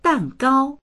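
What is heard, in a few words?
"dan4gao1", Chinese; 蛋糕; ケーキ、カステラ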